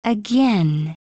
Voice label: "again", USA f